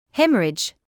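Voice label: "haemorrhage", British English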